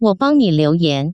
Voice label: "liuyan", Chinese, Taiwan, phone call